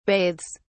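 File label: "bathes", British English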